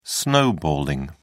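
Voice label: "snowballing", British English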